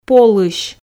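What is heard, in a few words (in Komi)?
"полысь", Komi, female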